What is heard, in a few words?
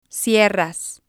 "cierras", spanish